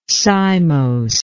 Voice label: "cymose", english